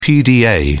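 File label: "pda", British English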